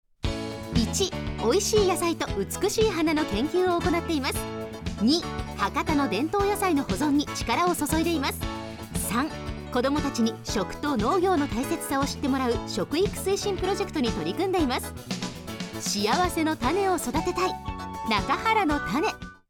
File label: radio cm